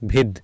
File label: "bhid", Sanskrit